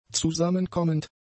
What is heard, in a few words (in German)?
"zusammenkommend", German; adjectives